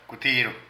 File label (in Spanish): Pellizcar, Zapoteco de Petapa; Percepción; Vocabulary